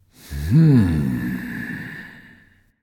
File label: thinking; smite; xbalanque